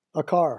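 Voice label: "a car", English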